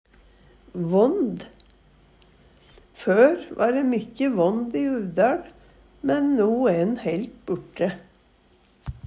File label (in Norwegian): vånd, Numedalsmål; Før va ræ mykjy vånd i UvdaL, men no æ'n heilt burte.; Substantiv hankjønn